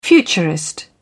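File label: "futurist", British English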